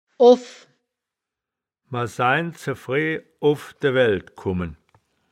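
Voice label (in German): "off", Wittlicher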